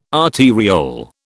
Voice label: "arteriole", British

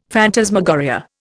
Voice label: "phantasmagoria", Indian; Dictionary

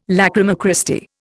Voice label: "lachryma Christi", Indian

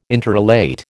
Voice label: "interrelate", American

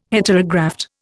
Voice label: "Heterograft", Indian

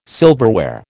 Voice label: "silverware", American

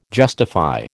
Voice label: "justify", American

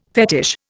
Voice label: "fetish", Indian

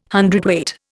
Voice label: "hundredweight", Indian

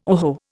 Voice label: "oho", Indian

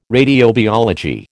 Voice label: "radiobiology", American

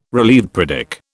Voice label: "relieved", British

